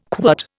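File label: "quirt", Indian English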